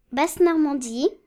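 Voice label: "basse normandie", French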